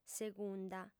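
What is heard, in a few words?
"segunda", female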